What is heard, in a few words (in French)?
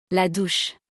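"La douche", French; vocabulaire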